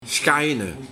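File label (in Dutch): "Skaine", dialect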